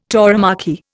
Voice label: "tauromachy", Indian